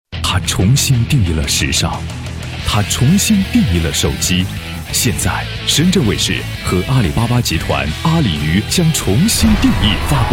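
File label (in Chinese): 大气时尚发布会; 深圳卫视超级发布会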